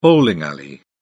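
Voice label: "bowling alley", English, British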